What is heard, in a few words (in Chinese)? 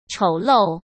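"丑陋", Mandarin Chinese; hsk; chinese-flashcards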